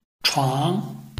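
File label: "chuang", Chinese, tone 2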